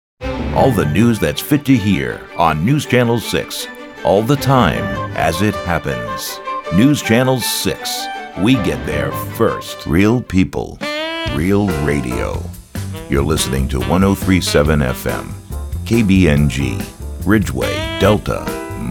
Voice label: Broadcast Imaging